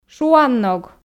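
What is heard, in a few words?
"шуанног", Komi, female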